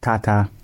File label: goodbye, gwentian